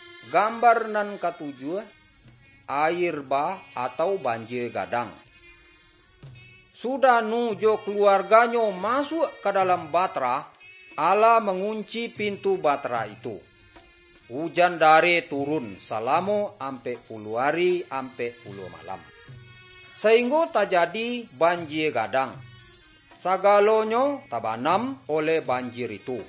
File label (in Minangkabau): good news minangkabau